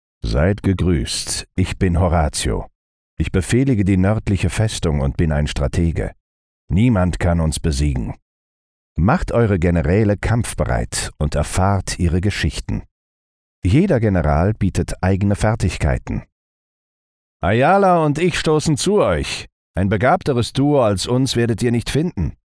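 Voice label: German, male, games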